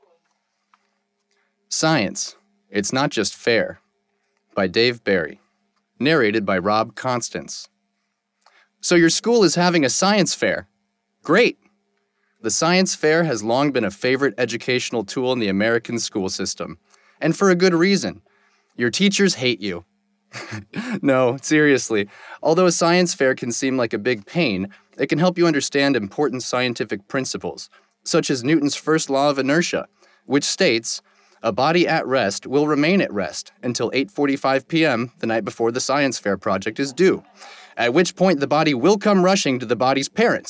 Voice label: Non-Fiction Sample

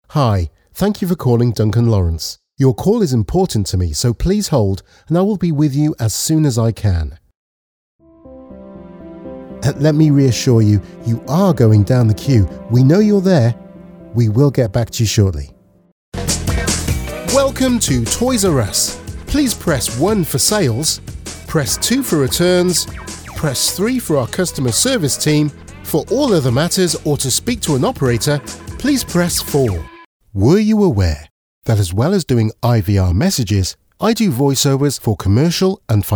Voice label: IVR

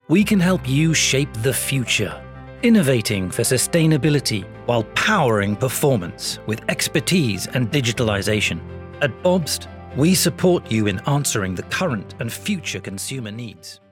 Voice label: Corporate; BOBST

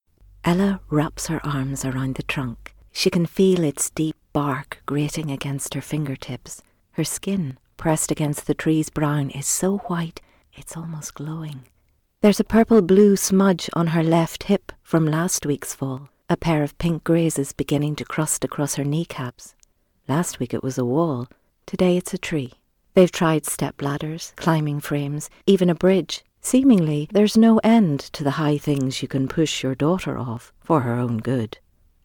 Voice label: Audiobook

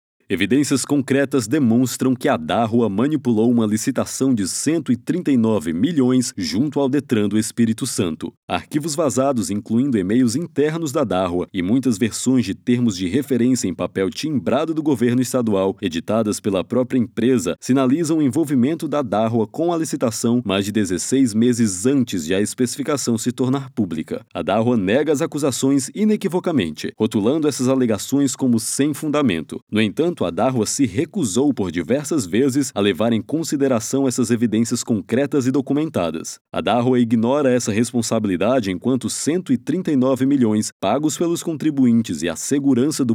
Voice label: Voiceover; IPVM

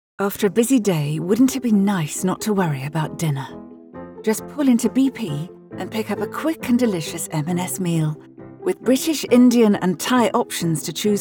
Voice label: REEL COMMERCIAL

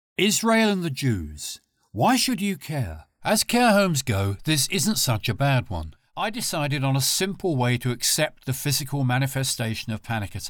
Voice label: Audiobook

